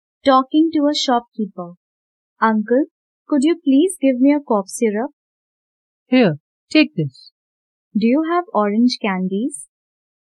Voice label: conversation; Calyx-Buds; English; WritingSection